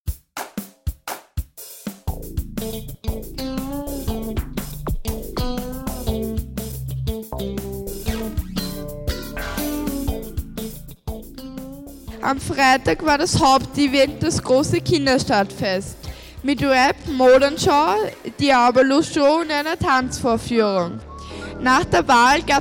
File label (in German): Radio; Stadtfest; Rueckblick